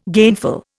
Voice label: "gainful", Indian

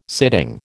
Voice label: "sitting", American

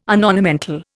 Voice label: "unornamental", Indian

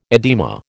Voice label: "edema", American

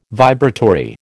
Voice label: "vibratory", American

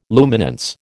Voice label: "luminance", American

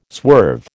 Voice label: "swerve", American

